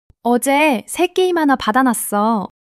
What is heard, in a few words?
excitement; monologue